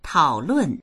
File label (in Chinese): "tao3lun4", voices